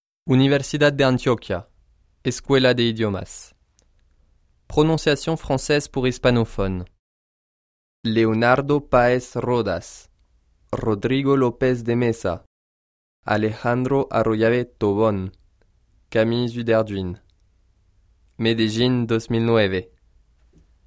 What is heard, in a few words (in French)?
Prononciation Francaise Pour Hispanophones